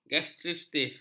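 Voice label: "gastritis", Malay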